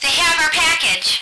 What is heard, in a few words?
radio female; action-quake2